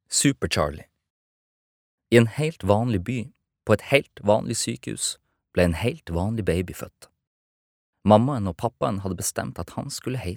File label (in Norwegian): barnebok, norsk, mann